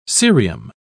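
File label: "cerium", British English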